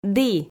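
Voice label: "ді", Komi, female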